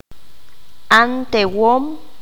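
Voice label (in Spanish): "Médico doctor", qanjobal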